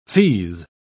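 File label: "feaze", British English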